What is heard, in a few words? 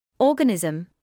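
"organism", British English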